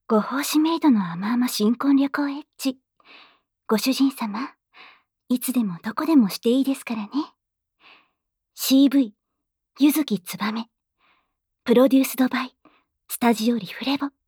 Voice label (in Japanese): タイトルコール, ASMR